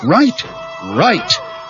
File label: "right right" male